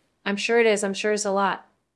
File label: Voice Acting es